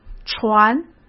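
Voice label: "传" Mandarin Chinese; hsk; chinese-flashcards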